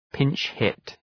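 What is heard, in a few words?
"pinch hit", English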